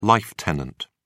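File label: "life tenant", British English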